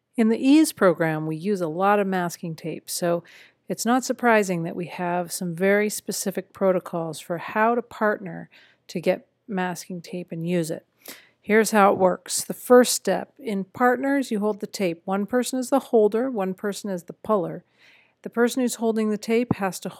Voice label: audio commentary and implementation tips; EASE: Unit 2; Masking Tape Partnering; One partner holds the tape roll loosely